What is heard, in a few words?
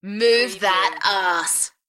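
British female Move that arse; beanstalk audio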